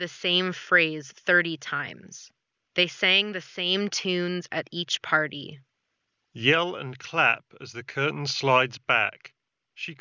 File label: Ideal microphone sample; Astro A30